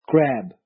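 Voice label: "crab", Nepali; grade4; vertebrates invertebrates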